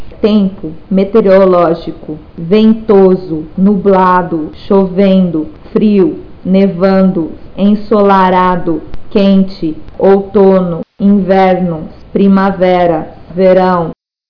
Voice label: portuguese weather